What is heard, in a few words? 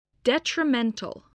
"detrimental", english spelling